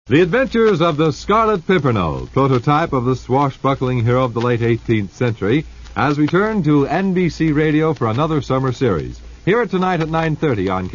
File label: Promo; KHQ Spokane; Scarlet Pimpernal